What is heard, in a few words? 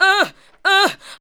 ADLIBS 90; Ueberschall; Jam Box